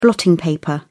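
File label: "blotting paper", British English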